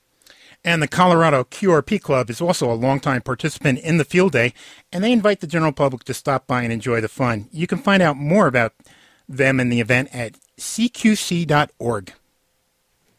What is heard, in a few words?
field day announcement; KGNU; KGNU's radio announcement about our 2009 Field Day effort!